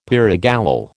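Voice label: "pyrogallol", American English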